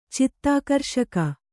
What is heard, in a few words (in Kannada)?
"ಚಿತ್ತಾಕರ್ಷಕ", Kannada